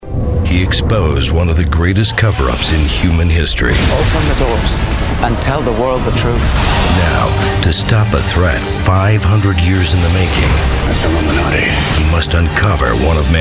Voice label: Movie Trailer